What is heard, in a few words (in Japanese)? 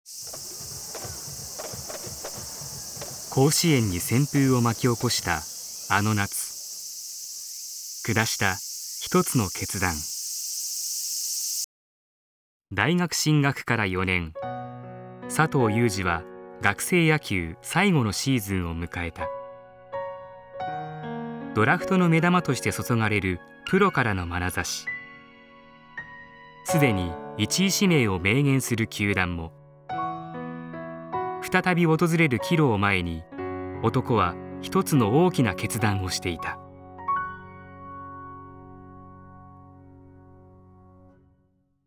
voice sample ドキュメントスポーツ